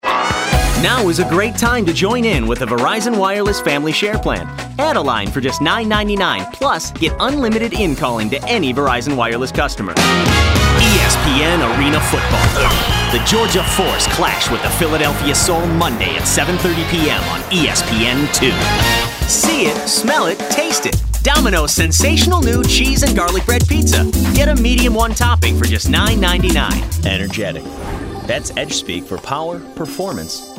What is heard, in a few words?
Commercial